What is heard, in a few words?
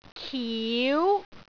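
"kiu2", Cantonese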